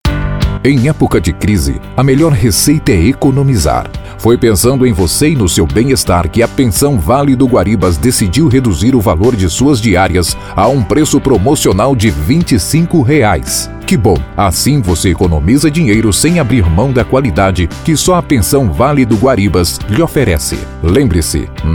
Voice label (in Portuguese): VOZ IMPACTO GRAVE vinheta; para pensao para morar alugar pousada